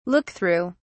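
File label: "look through", British English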